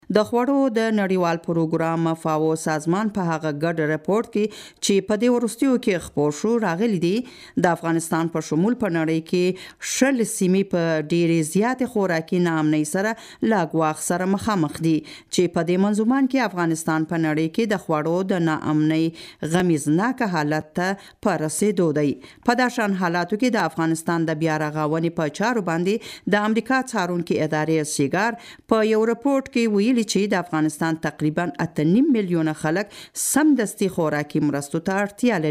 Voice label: Pashto